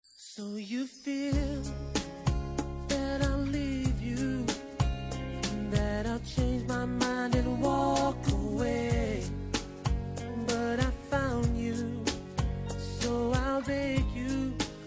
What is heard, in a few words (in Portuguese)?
vc e especial fem